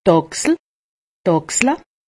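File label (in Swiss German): "achsel", Haut Rhin; medecine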